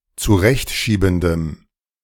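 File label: "zurechtschiebendem", German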